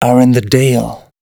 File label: male, spoken hooks